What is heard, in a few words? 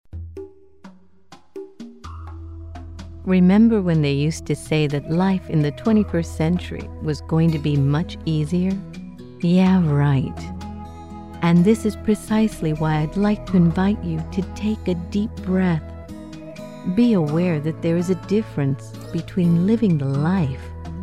English Web